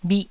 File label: "bhih1", taiwanese